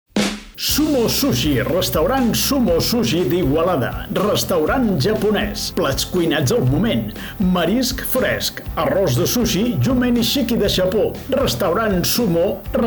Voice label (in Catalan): Catala Comercial; Publicitat; Sumo Suxhi